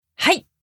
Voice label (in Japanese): "はい", Japanese